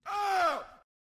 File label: mummy voice